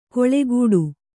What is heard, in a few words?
"ಕೊಳೆಗೂಡು", kannada